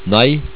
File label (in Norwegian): "nei" Norwegian